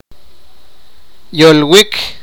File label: sipakapense; speak language